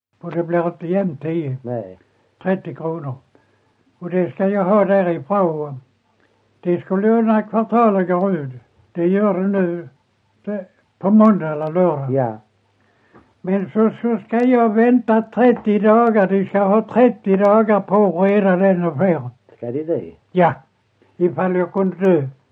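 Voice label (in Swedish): Ekeby Skåne, dialekter, svenska sagor; Folkminnen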